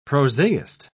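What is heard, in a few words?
"prosaist", British English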